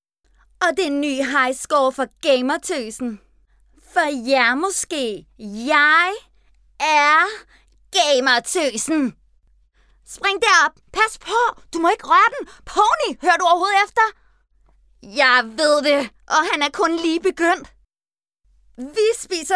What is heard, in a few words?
dubbing